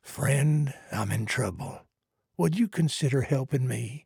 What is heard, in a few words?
trader male like quest offer